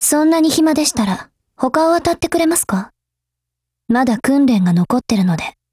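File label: DIALOGUE, Japanese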